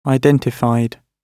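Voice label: "identified", British English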